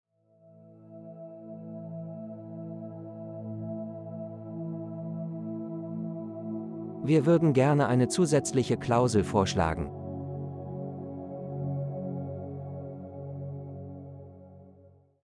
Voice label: Relax Phrases, de-en; Business Negotiations